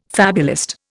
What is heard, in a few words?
"fabulist", Indian